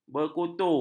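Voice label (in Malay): "berkutu", Malay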